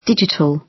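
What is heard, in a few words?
"digital", British English; Time, history; Thời gian, lịch sử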